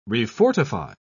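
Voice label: "refortify", English, uk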